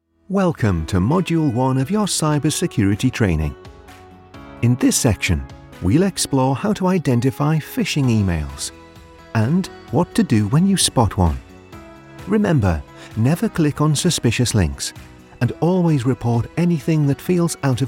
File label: teesside voiceover elearning